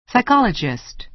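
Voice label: "psychologist", American English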